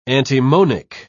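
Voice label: "antimonic", British English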